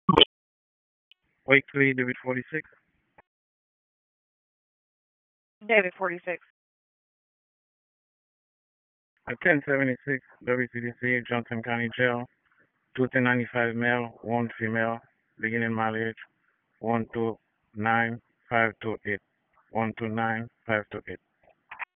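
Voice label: Sheriff's Office Dispatch; p25; wake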